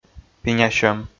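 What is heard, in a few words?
"пинясьӧм", Komi, male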